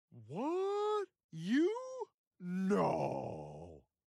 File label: sarcasm